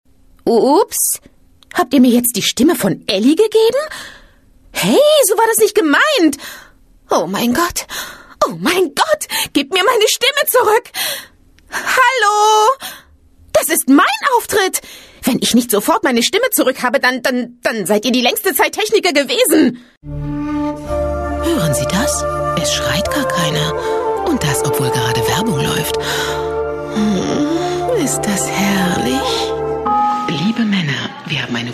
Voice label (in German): Synchron; Ellie; Ice Age; Julia Roberts